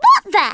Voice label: "take cover", Scouser